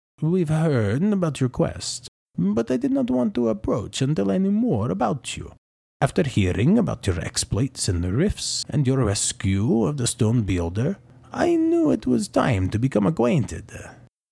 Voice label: Righteous and noble paladin with righteous conviction and protective strength, Audiobook Hero; Beodda